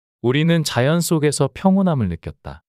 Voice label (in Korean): 우리는 자연 속에서 평온함을 느꼈다 문장 남자